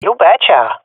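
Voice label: affirmation, swat female; vo; Counter Strike Model Pack; CS:GO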